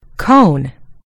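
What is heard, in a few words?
"cone", American English; gcompris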